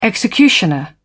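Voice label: "executioner", British English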